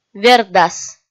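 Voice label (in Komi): "вердас", Komi, female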